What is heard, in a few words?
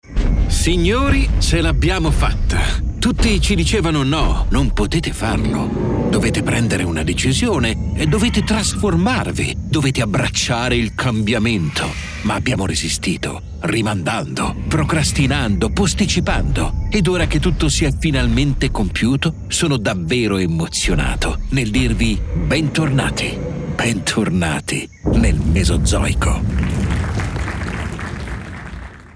RADIO; LINEA EVOLUTION; campagna rebranding 2025